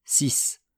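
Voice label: "six", French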